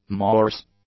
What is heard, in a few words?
"Morse", English; nouns